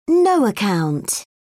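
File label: "no-account", British English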